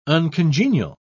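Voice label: "uncongenial", British English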